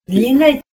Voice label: long, Ninilchik Russian